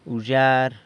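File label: "wzhyar", spoon, San Lucas Quiaviní Zapotec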